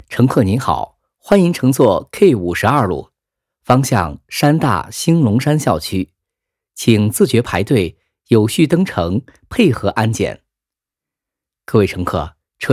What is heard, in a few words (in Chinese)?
专题播报 常规公交报站器制式内容; 纪录片